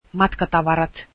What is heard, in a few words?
finnish luggage